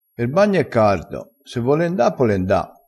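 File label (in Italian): "vole", dialettale, crespinese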